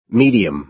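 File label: "medium", American English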